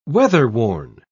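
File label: "weatherworn", British English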